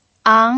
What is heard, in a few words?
"ang2" pinyin